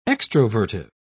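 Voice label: "extrovertive", British English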